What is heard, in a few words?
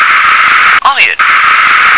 "iron", English